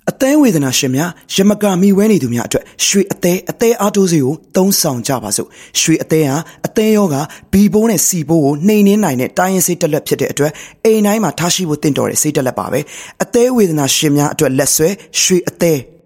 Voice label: Burmese Voice Sample Male